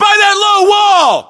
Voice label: US landmark near wall low, battlechatter, english